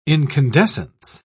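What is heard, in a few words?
"incandescence", British English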